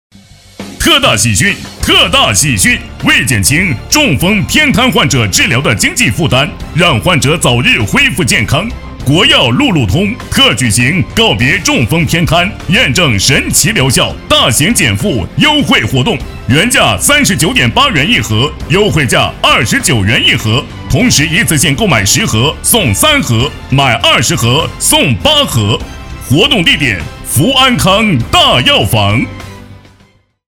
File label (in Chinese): 男 广告 药房药品广告配音